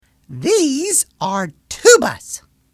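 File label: "these are tubas", English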